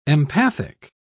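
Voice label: "empathic", British English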